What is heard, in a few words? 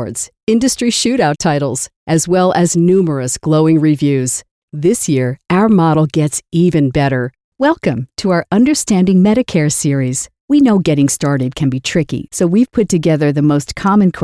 Corporate Online training; reel